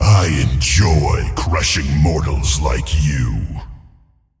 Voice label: Male Demon Play